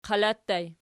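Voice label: "qala" ergative plural, Archi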